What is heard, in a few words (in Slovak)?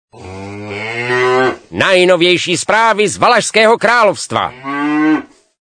sms hlásky cz